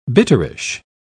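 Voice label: "bitterish", British English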